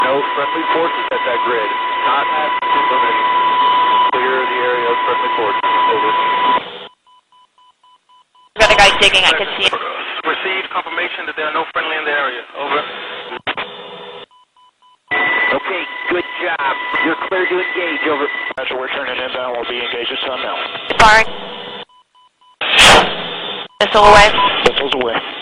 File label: apache radio chatter